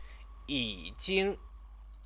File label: "yijing", Chinese; Chinese lessons